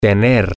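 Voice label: to Have, Spanish, male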